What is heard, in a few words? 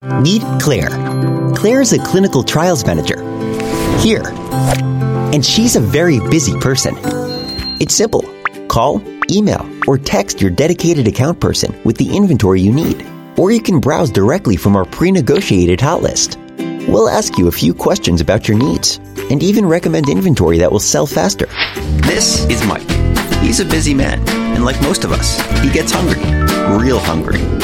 US English Animated Explainer